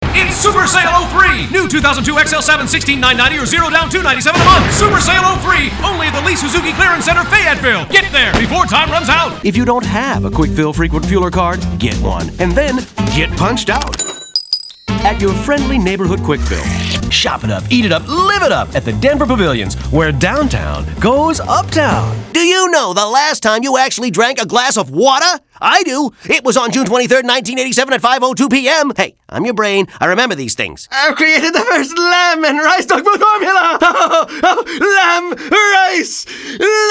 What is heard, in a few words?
High Intensity